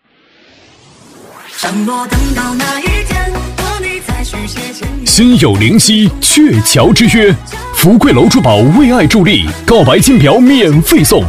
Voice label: 男 促销广告 激情促销; 厚重有力; 大气浑厚; 富贵楼珠宝

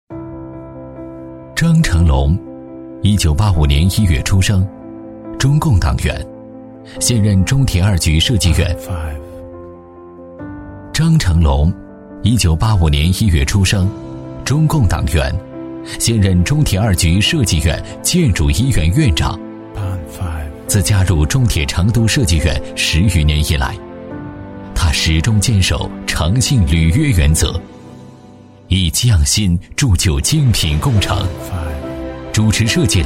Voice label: 男 人物专题; 男B55-记录讲解

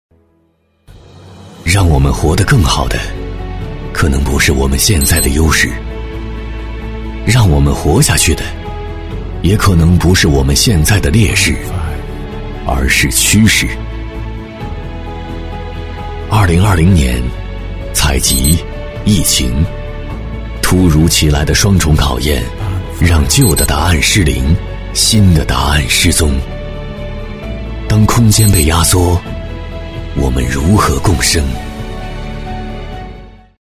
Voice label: 男319 走心广告片 自然讲述走心; 普药公司

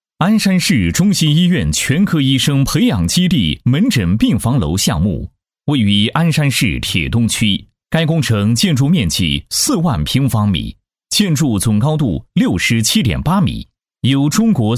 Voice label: 男 医院宣传 大气抒情; 男180-正气明亮 高端大气; 鞍山市中心医院

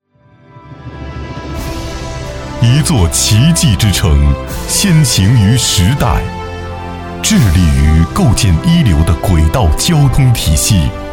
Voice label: 男 大气质感; 深圳铁路; 男B28-大气舒缓

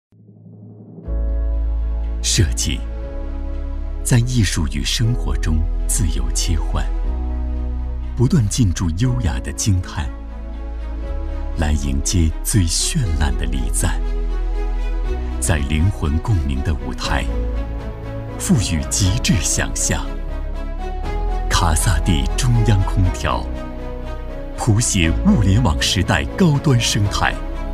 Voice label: 男, 广告片配音, 舒缓, 大气; 卡萨帝中央空调; 透亮故事感 舒缓抒情

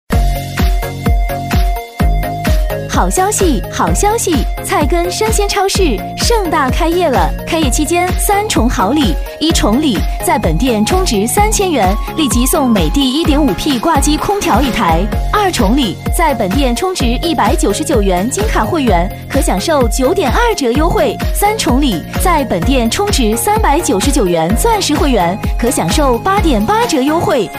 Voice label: 甜美欢快女声 生鲜超市盛大开业; 激情力度

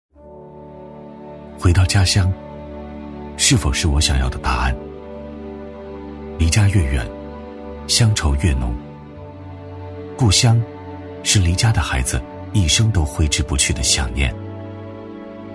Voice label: 素人化男 素人风格; 男42-磁性质感; 素人自然; 德信｜江山·云起

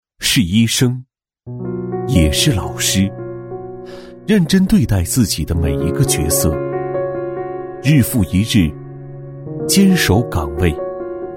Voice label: 男 沉稳讲述, 年轻气质 大气浑厚; 滨州医学院十二时辰

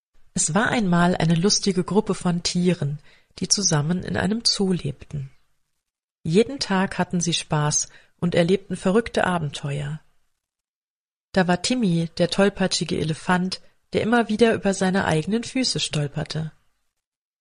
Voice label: 德语女声

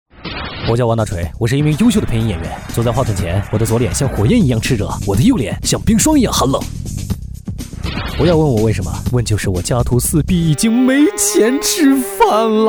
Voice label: 男-角色, 年轻活力 素人自然; 我叫王大锤

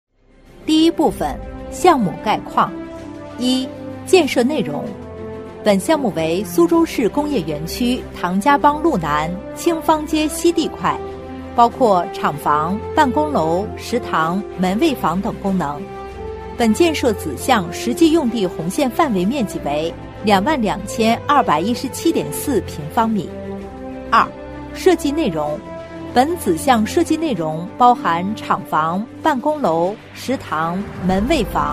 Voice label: 女 工程类专题 设计方案; 成熟知性

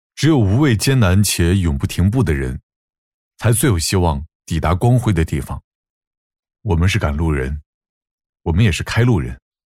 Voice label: 男 宣传片 素人讲述; 厚重有力 素人自然

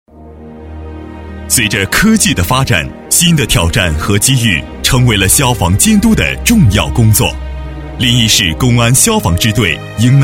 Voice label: 男 党政专题 消防; 低沉磁性; 大气浑厚; 男118-党政专题--消防

